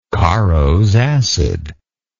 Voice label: "caros acid", English

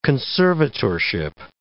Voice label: "conservatorship", English

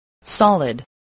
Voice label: "solid", English voice

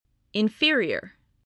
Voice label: "inferior", spelling voice

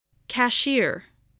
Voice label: "cashier", spelling

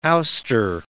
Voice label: "ouster", English

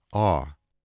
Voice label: "awe", english

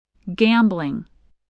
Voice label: "gambling", voice, English

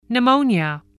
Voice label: "pneumonia", English

